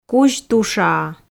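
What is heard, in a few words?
"кузь тушаа", Komi, female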